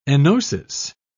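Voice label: "enosis", British English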